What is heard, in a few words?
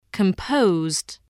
"composed", english